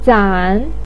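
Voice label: "zan3" Chinese syllable, pinyin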